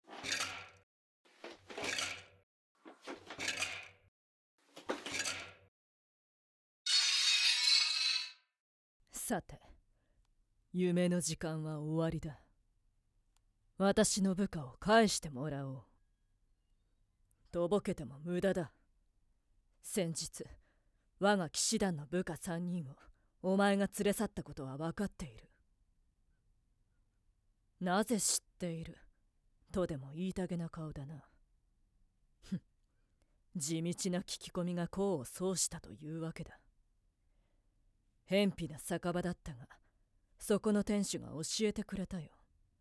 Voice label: 本編（SEあり）, ASMR; 女騎士団長; キョンシー

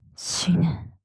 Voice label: "死ね", 強く, 極近, 左; マゾオスのあなたに敗北射精の快楽を叩き込む音声

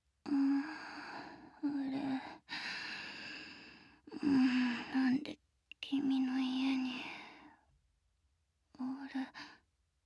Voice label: ハグとピロートーク, ASMR; リセット-関係を巻き戻して; SEなし

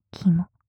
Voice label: きも・極近・左, ASMR; マゾオスのあなたに敗北射精の快楽を叩き込む音声

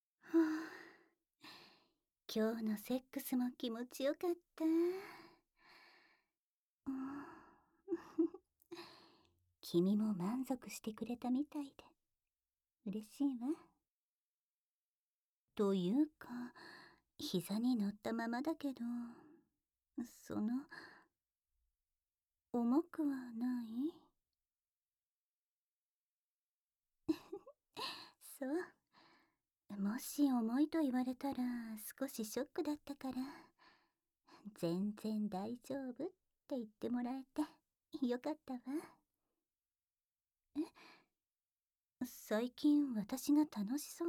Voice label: ピロートーク, ASMR

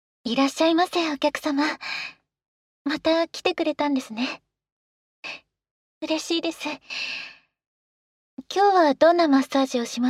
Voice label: フリートーク, ASMR; 裏オプだらけのメンズエステ店! -金玉からっぽになるまで抜いてくれる極上オチンポ施術

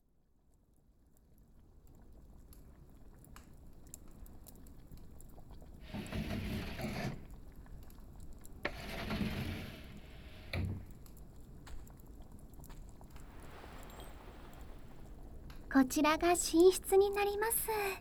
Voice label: 添い寝; ほっこほこ幸せひなたお姉さん毛布; やおよろ温泉郷 ～白柴犬神ひなたの過保護なあなた専用ぽかぽかお姉さんの溺愛ご奉仕～

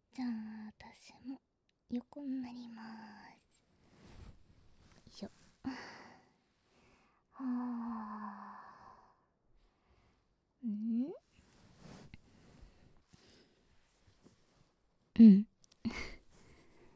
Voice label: ささやきこそこそおしゃべり添い寝, ASMR

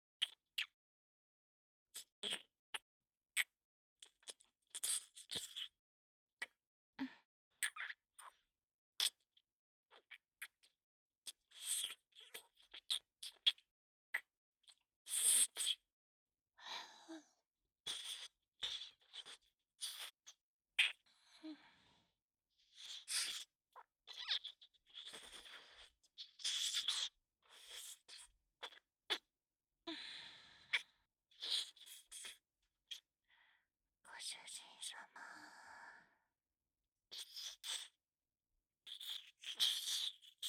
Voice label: 目覚まし音声, ASMR; 調教済み２アペンド１

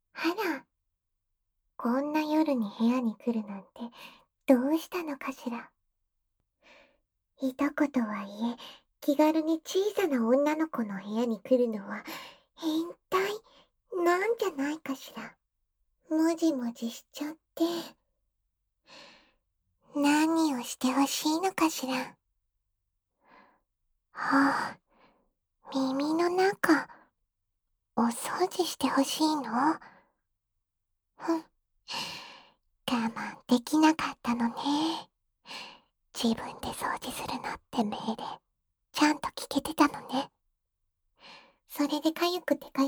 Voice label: 生意気な女の子の耳かき; ざぁ～こって言っちゃう生意気な女の子の耳かき; 【安眠的17小时ASMR】为现在就想睡觉的你哄睡的治愈少女。让大脑放空的全套安眠(现实掏耳・按摩・耳语)

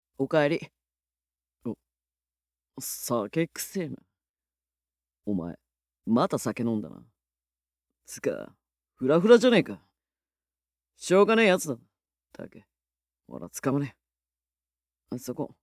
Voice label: Voice 闇 COOL 系; R15 酒飲みの彼女に説教するお口悪い系彼 #17〜19

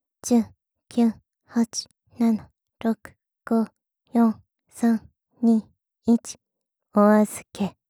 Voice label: カウントダウン 早め

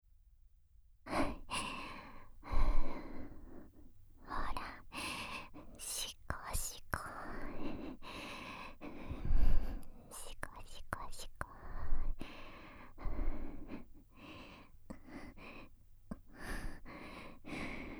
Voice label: 耳元煽り 手コキ煽りループトラック, ASMR; しこしこ雑魚チンポ; メスガキ魔法少女サーリャちゃん

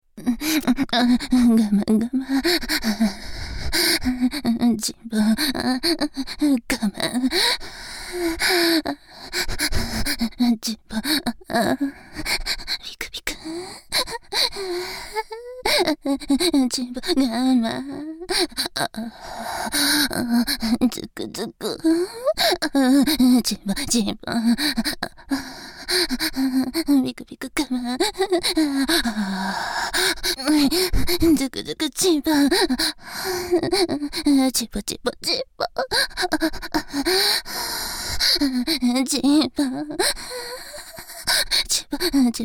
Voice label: チン嗅ぎと裏筋舐め上げ 右 淫語連呼, ASMR; ギャルフェラッ!!学校は援交ギャル風俗店ッ!!

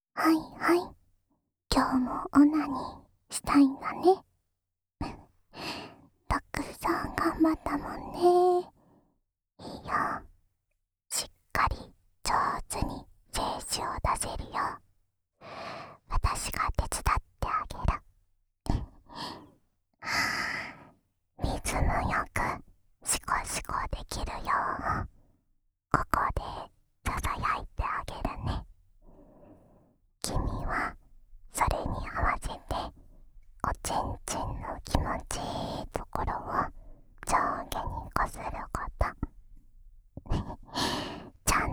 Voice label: オナサポ用しこしこボイスループ（左耳）; 特典 ダンジョン最奥の禁断の魔女は、あなたのおち○ちんを離さない!～命助けたんだから、たくさん精子ちょーだい?

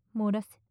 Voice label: 漏らせ・近・左, ASMR

